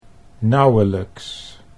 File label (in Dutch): "nauwelijks", Dutch